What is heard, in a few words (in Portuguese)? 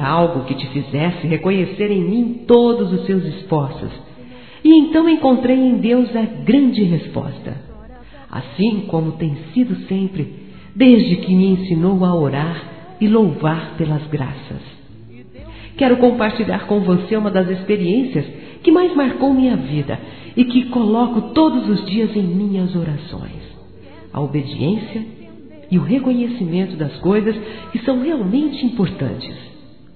Evangélico Aniversário Mãe Feminino; Aniversários